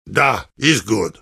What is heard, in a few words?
heavy summary callout; compmode